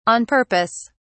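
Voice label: "on purpose", English, British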